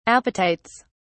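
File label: "apatites", British English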